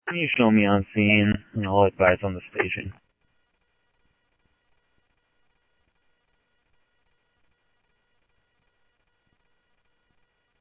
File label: Sheriff, scanner audio